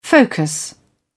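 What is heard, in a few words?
"focus", British English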